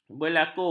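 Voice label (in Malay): "berlaku", Malay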